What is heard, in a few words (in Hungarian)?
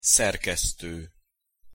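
"szerkeszto", Hungarian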